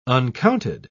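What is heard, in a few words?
"uncounted", British English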